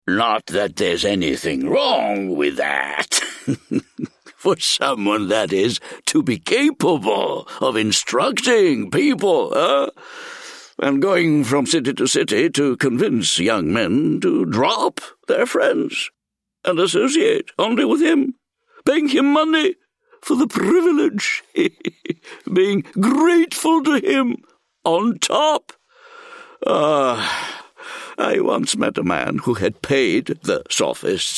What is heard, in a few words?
Audiobook sample; socrates now